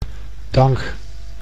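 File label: "dank", Dutch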